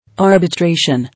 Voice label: "Arbitration", English